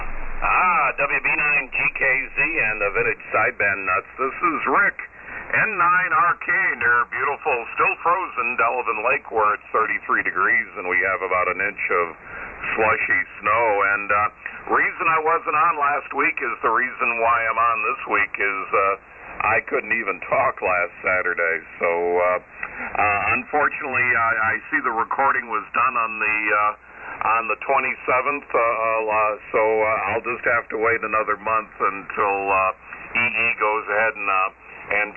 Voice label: Hallicrafters HT-32A - SX-101 D-104 Mic & Dentron Clipperton Amp; Delavan,Wi.